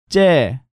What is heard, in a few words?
"Jjae", Korean